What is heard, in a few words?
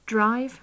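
"drive", British English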